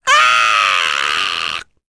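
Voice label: Vox Dead, English; Lakrak; kingsraid; voices heroes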